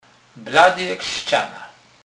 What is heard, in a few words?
blady, Kurpie; slownik